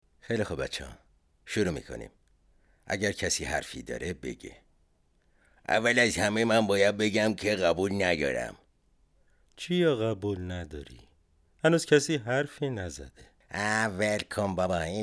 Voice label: farsi impersonations